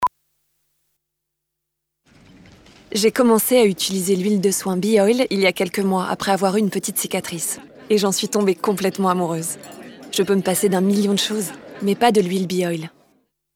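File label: female, French; BiOil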